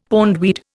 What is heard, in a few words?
"pondweed", Indian; Dictionary